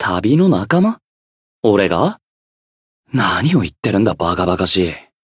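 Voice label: story, Japanese; yakumo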